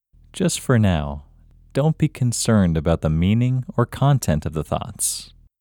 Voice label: Locate IN Male